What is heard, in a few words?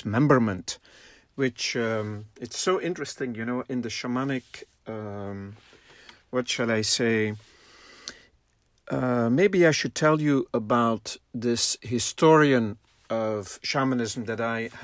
Mix voiceover with drum